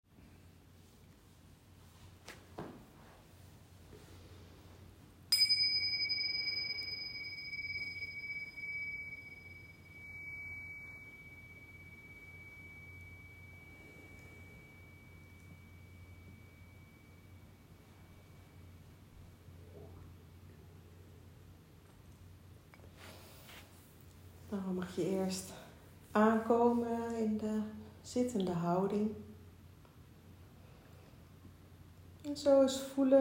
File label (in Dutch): Adem meditatie; mbsr training februari 2025